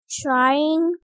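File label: "trying", English word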